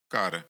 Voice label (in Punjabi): "ghar", Punjabi